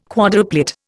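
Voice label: "quadruplet", Indian English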